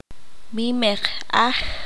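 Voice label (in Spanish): "bambú", qanjobal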